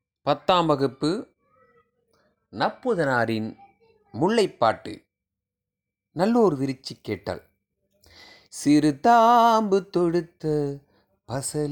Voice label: tamil memory poem; namma kalvi